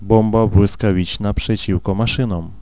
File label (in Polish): Veh Bomb, vocals pl; fan made